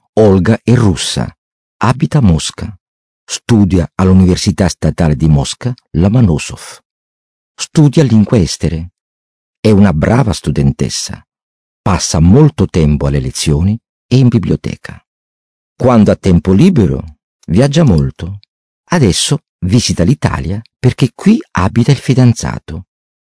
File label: italian male